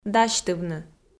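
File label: "дасьтывны", Komi, female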